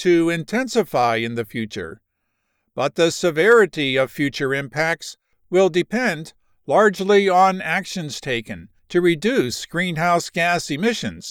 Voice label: Climate change in US, science medical narration